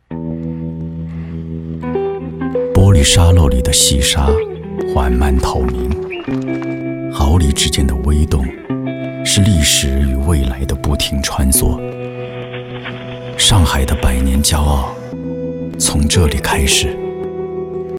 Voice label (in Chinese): 男, 独白, 中年微电影自然独白